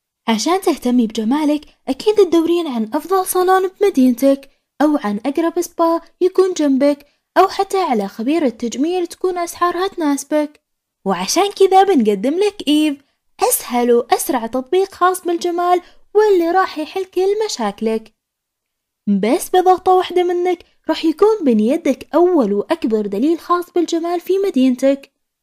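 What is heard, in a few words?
Arabic, female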